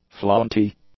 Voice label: "flaunty", english; adjectives